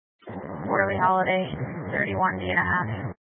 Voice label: Mesa County Sheriff Dispatch